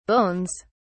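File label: "bones", British English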